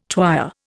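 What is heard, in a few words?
"twyer", Indian English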